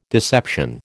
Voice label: "deception", American